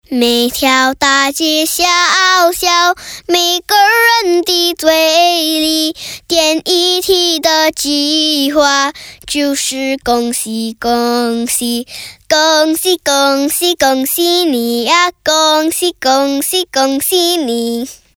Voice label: MAND